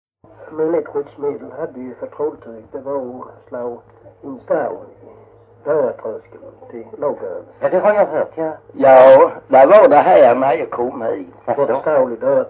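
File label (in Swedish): Jämshög Blekinge, Svenska sagor dialekter; Folkminnen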